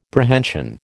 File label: "Prehension", American